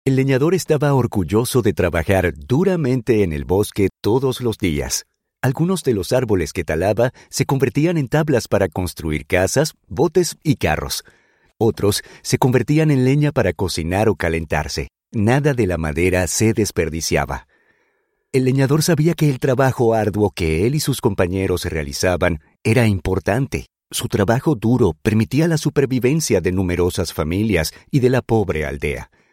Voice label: Latin Spanish; Mercurio y El Lenador